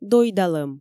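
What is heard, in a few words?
"дойдалӧм", Komi, female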